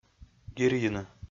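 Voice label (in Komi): "гирйыны", Komi, male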